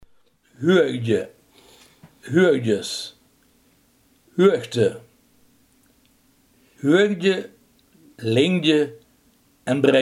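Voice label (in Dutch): hoogte, Limburgse gesproken woordenboeken; hoogte, huuegdje (huuegdjes), huuegte; Huuegdje, lingdje en breidje.; Gein huuegdje van emes kriege.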